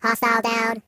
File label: "Enemy down", Robot; HedgewarsMobile